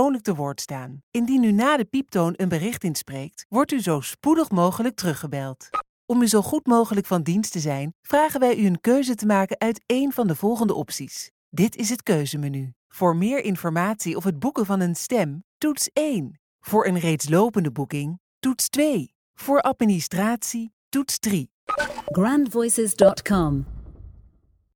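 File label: Dutch female voice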